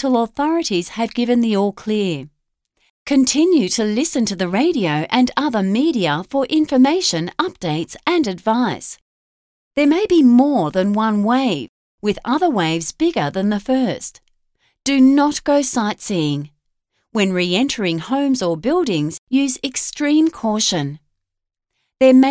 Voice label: a tsunami warning cancellation; tsunamisafe